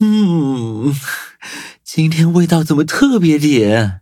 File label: levelup, zh-hant